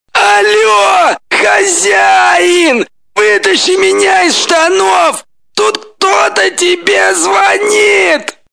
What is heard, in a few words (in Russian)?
govorit telefon